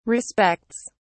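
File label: "respects", British English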